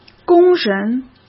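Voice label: "工人", Mandarin Chinese; hsk; chinese flashcards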